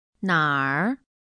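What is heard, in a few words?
"nar", Chinese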